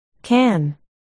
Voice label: "can", articulation